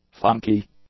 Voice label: "funky", English; nouns